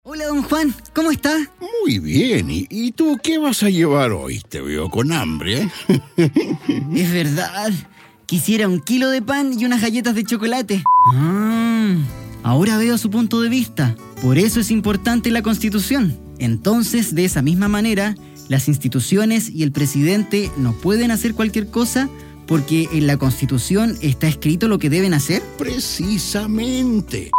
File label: spanish latin american